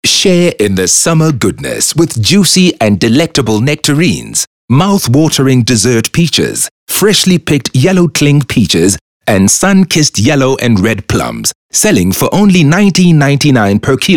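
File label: English Bright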